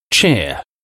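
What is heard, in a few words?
"cheer", English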